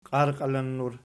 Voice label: "qiarqalinnur", Archi

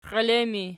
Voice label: xielettur erg, archi

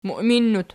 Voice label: "muiminnut", Archi

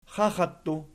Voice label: "xaxattu", Archi

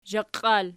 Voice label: "zhaqial", Archi

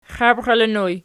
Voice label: xabxilinoj nom, archi

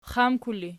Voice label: xamkul erg, archi

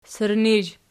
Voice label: "sirnizh", Archi

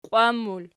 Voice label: "qiwan", Archi

